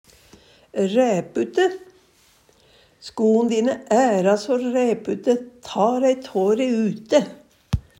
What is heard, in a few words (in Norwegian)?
"ræpute", Numedalsmål; Sko'n dine æra so ræpute, ta rei tå re ute.; Adjektiv